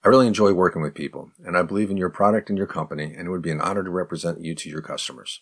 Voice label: advanced response fast